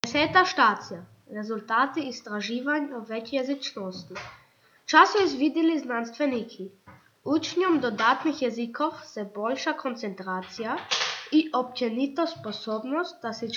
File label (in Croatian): hrvatski; Station 10 forschungsergebnisse zu mehrsprachigkeit